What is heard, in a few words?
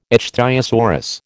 "ichthyosaurus", American